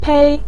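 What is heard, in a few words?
"pei", Mandarin Chinese neutral tone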